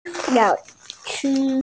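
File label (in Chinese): "lao3shi1", Mandarin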